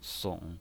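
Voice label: "song", Mandarin Chinese pinyin, third tone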